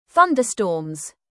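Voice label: "thunderstorms" British; Space, the planets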